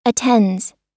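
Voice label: "attends" US English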